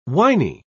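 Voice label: "winy", British English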